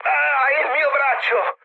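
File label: Italian damaged pilot mood low, speech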